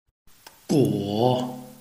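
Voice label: "guo", Chinese, tone 3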